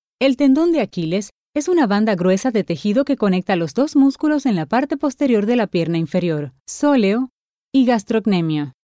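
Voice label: Latin American Spanish, Infomercial; GoLocalise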